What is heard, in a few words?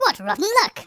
"oh dear", speech; Biggles